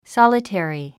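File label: "solitary", English word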